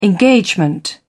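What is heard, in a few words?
"engagement", British English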